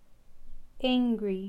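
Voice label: "Angry", English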